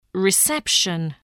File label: "reception", english